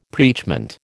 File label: "preachment", American